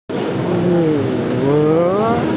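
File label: "ying1er2", Mandarin Chinese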